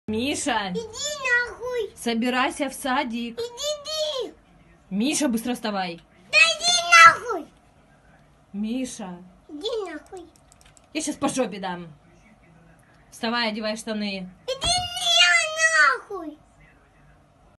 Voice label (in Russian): rebenok kotoriy obzivaetsya matom